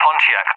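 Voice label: "Pontiac", English, UK; CallSign; DCS; Allied Flight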